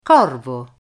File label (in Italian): corvo, vocabolario